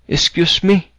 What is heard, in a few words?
excuse me, cebuano